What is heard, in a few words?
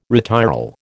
"retiral", American